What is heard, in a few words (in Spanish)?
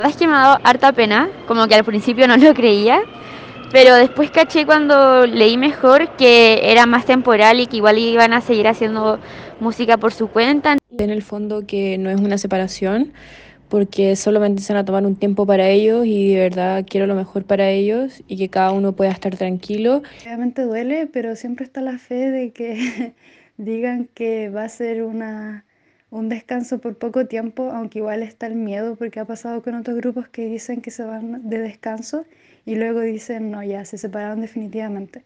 KPOP VOX POPULI